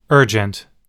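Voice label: "urgent", English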